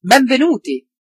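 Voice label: welcome, Brazilian Portuguese; gcompris-data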